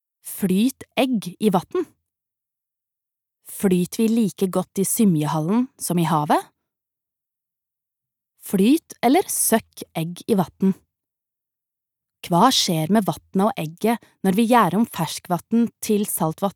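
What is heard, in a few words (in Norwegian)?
fagbok nynorsk, Norwegian; kvinne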